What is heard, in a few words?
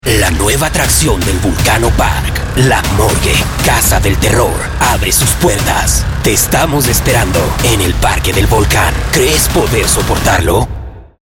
spanish latin american neutral trailer